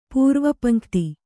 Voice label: "ಪೂರ್ವಪಂಕ್ತಿ", Kannada

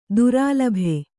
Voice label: "ದುರಾಲಭೆ", Kannada; durālabhe; noun; common cow-itch plant.

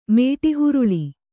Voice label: "ಮಡೆಹೊರಳು", Kannada

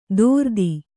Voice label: "ದೋರ್ದಿ", Kannada

